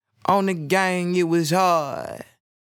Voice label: Vocal Phrase - Gang - 140 BPM, Dry; Vocal Phrases; Cymatics; Euphoria Vocal Sample Pack